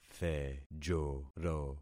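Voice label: "thejoro", concatenated syllables; Affixing experiment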